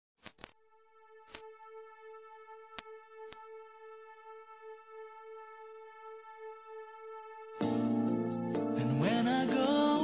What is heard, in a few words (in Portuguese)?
Saudades Erótica Feminino; Telemensagens